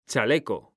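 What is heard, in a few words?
"chaleco", Spanish, male; mekanta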